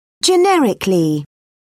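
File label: "generically", British English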